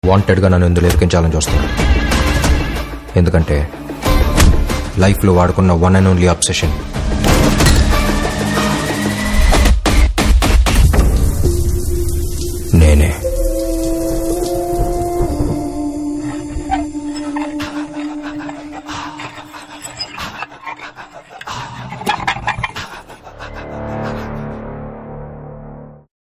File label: Movie Dialogue Ringtone; RED Movie; Ram Pothineni